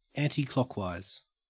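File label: "anticlockwise", Australian English; pronunciations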